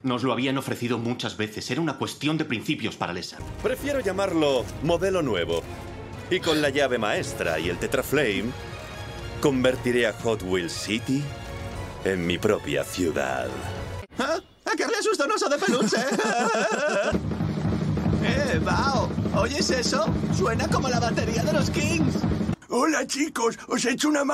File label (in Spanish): DOBLAJE